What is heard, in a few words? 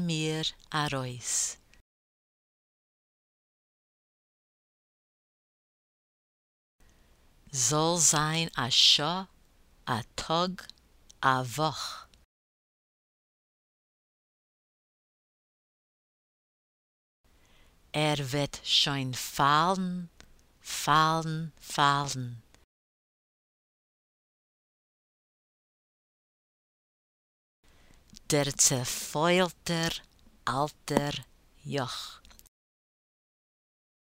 pronunciation drill; Listen and repeat